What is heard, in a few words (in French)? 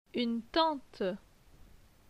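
"Une tante", French; phonetique; les consonnes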